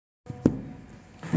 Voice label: voice message